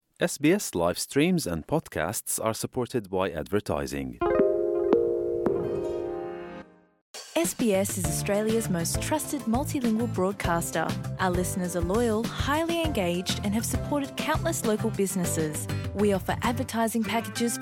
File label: gujarati newsflash; sbs